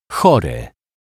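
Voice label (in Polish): "chory", Polish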